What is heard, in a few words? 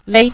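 "lei", Mandarin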